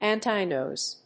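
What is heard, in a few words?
"anti-noise", English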